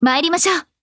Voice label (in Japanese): VO jp